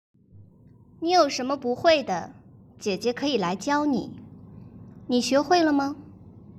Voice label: 大学女孩

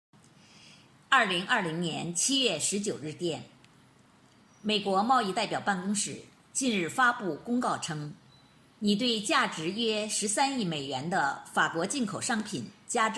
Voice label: 新闻 播报

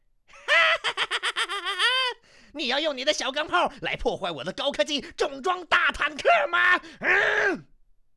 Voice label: 疯狂科学家

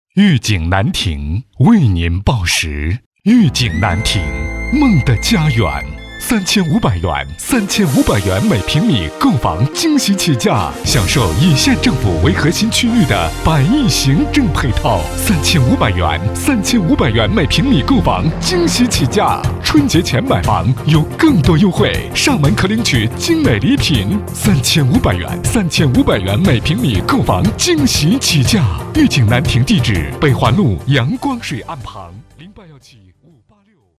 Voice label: 商业广电台房地产

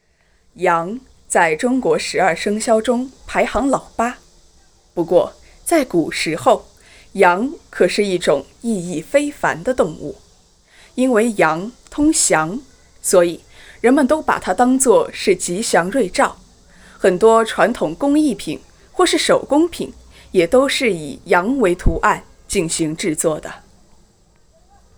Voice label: 百科配音

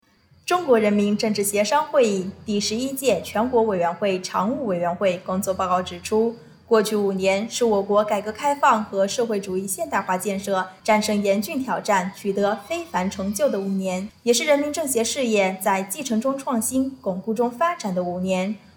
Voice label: 新闻播音

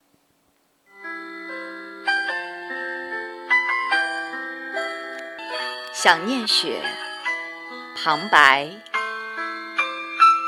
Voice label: 朗诵

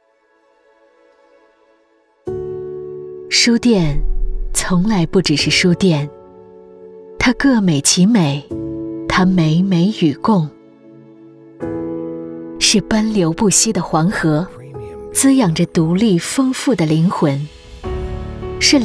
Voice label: 宣传片文艺磁性; 书店之美

